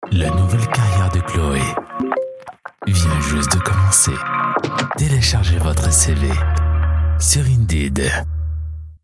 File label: french announcements